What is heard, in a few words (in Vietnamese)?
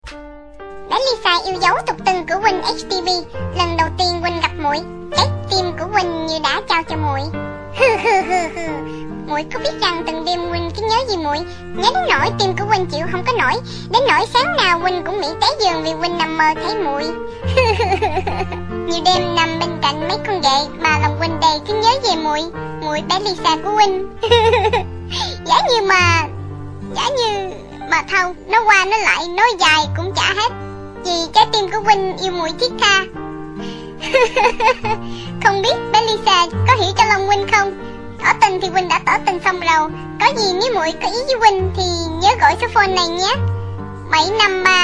Nhac chuong loi to tinh sieu de thuong